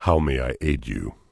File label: Tauren Male Warrior NPC Greeting